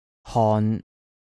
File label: "hon3", cantonese